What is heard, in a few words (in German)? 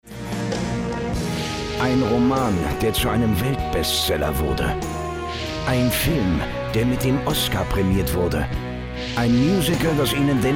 off trailer